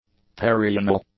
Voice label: "perianal", english; adjectives

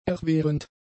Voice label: "erwehrend", German; adjectives

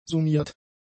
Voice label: "summiert", German; adjectives

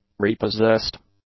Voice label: "repossessed", English; adjectives

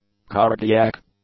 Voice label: "cardiac", English; adjectives

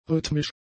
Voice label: "rhythmisch", German; adjectives

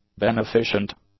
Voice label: "beneficent", English; adjectives

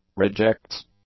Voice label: "rejects", English; nouns

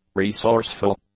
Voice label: "resourceful", English; adjectives

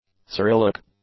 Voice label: "Cyrillic", english; adjectives

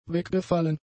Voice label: "weggefallen", German; adjectives

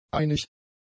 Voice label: "einig", German; adjectives

